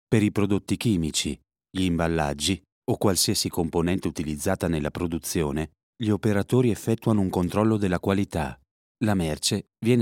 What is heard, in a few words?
italian elearning